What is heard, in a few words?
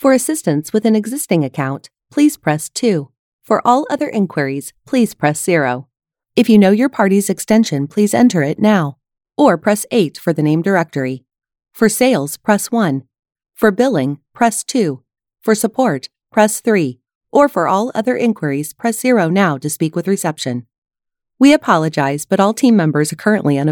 US PHONE